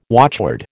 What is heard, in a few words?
"watchword", American